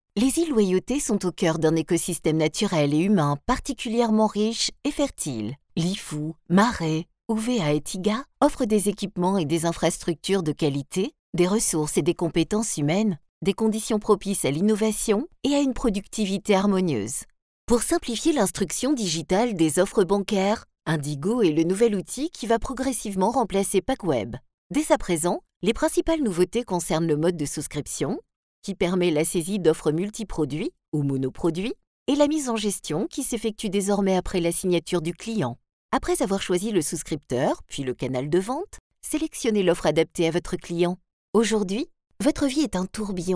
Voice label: voix off femme, Français; Studio Com'un Son; SPOTS RADIOS

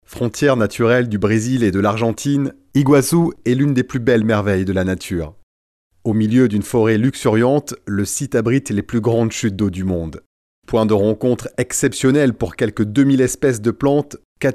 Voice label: voix off homme fr; Studio Com'un Son; SPOTS RADIOS

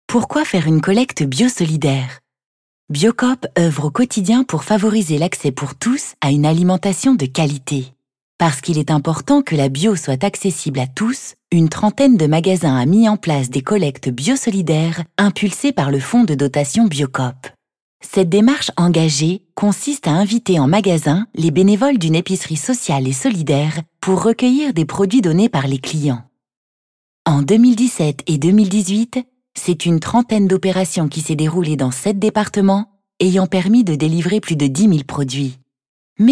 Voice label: voix femme, Français; Voix Off; 10 - Voix Femme - Français

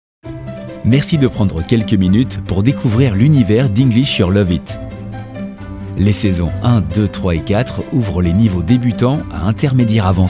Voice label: voix off homme fr; Studio Com'un Son; SPOTS RADIOS

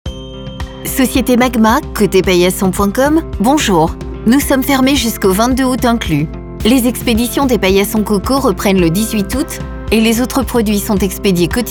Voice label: voix off message accueil telephonique femme

